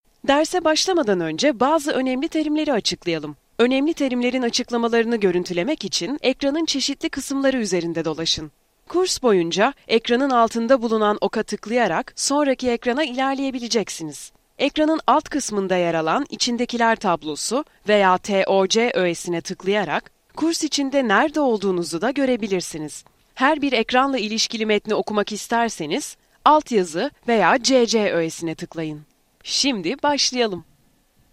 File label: e Learning